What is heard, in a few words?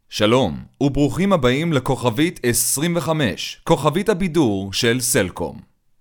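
hebrew interactive voice response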